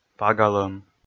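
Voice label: "пагалӧм", Komi, male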